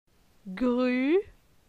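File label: "Gru", French; la syllabe u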